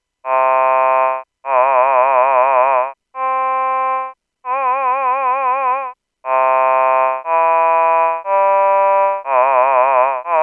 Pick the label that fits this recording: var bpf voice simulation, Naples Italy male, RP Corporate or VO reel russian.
var bpf voice simulation